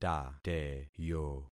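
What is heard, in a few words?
"tateyo", concatenated syllables; Affixing experiment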